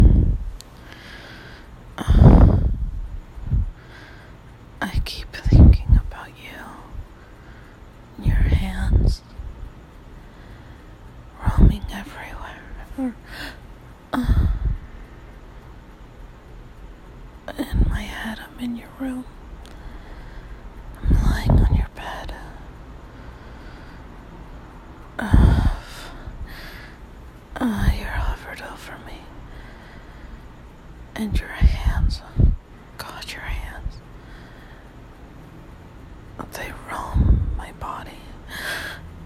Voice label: Nighttime Ramble Whispers

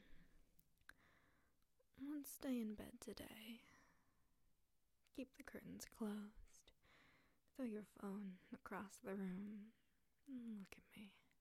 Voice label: Erotic poetry, F4F; Stay in bed with me; Whimsical; Real-world romanticism; Let’s play hooky

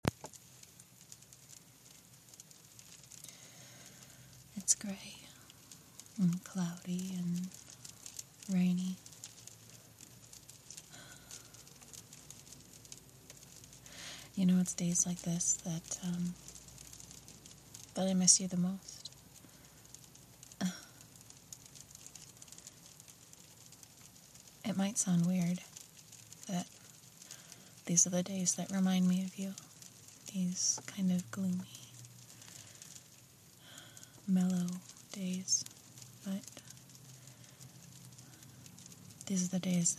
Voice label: [F4A] [romance][erotic][sweet]; Rainy Day Longing

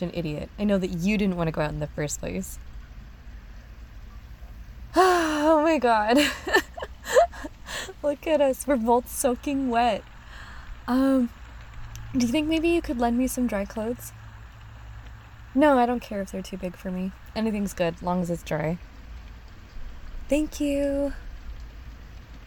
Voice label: Caught in the Rain, Rain sounds

